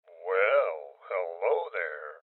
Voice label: Voices Loot Spotted Male Old